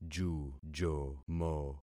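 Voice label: "jujomo", concatenated syllables; Affixing experiment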